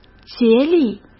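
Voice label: "竭力", Mandarin Chinese; chinese-flashcards; hsk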